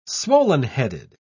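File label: "swollen-headed", British English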